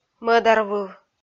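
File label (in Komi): "мӧдарвыв", Komi, female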